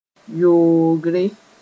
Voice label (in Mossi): "yoogre", moore; filtering